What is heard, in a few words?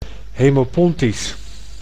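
"hemopontisch", Dutch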